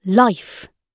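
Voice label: "life", British English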